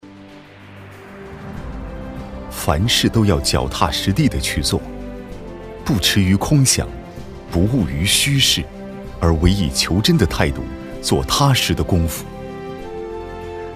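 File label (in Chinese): 男【宣传】; 最美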